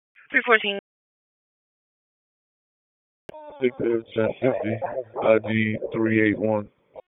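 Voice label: Police Northeast District Dispatch, Raleigh; wake; p25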